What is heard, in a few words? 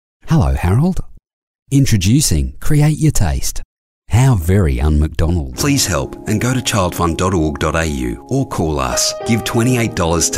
Australian